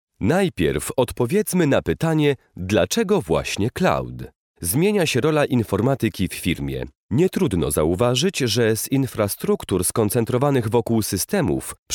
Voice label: male polish voice over talent narrative